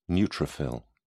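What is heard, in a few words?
"neutrophil", British English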